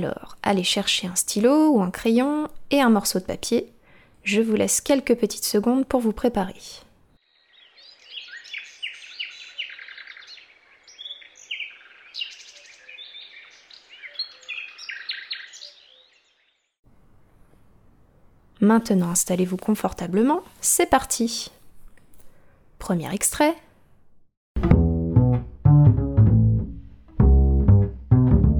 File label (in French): Web Radio jeu musical